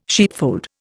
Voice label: "sheepfold", Indian